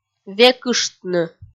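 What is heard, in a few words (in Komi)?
"векыштны", Komi, female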